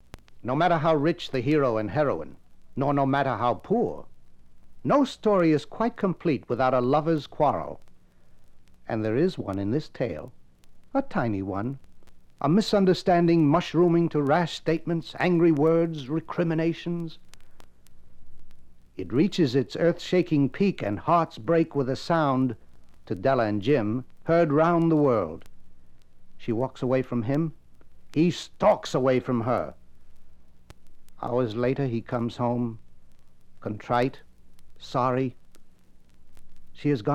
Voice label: Narration